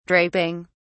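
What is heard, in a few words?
"draping", British English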